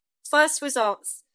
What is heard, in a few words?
Voice Acting es F